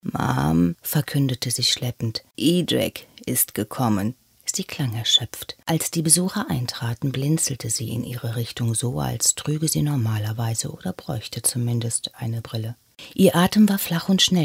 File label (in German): Hoerbuch; Doch die Suende ist scharlachrot